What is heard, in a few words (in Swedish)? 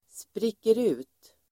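"spricker ut", Swedish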